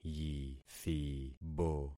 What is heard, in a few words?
"yithibo", concat syllables; Affixing experiment